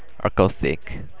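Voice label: "acoustic", JPN, male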